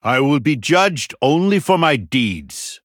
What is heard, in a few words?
Male Human Bark; Tirion Fordring